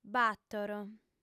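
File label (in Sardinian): "bator", female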